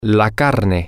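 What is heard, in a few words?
the meat, Spanish, male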